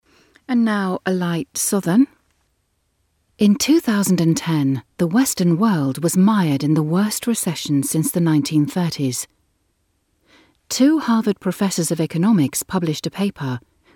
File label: southern accent